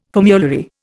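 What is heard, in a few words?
"formulary", Indian English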